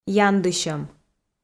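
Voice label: "яндысьӧм", Komi, female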